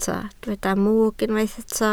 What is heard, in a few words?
"mwg", Welsh